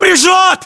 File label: inform suppressed generic, battlechatter ru; localized english